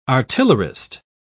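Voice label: "artillerist", English, British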